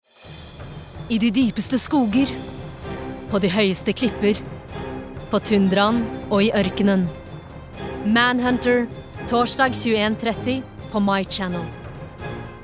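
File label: Norwegian dramatic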